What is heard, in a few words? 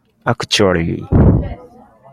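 "actually", Japanese, male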